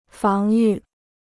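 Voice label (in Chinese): "防御", Chinese; fáng yù; défense; défendre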